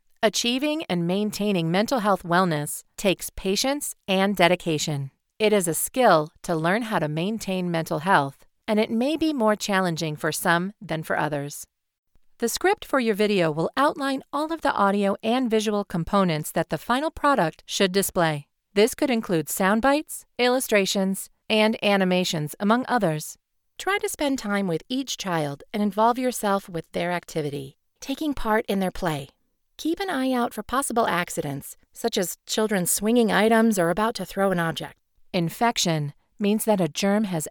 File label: Narration